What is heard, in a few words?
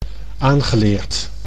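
"aangeleerd", Dutch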